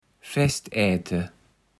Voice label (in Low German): "Festäte", Platt